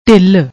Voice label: "dhill", Punjabi